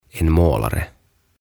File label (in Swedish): "En Målare" "Maalari"